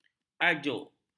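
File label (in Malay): "ajuk", Malay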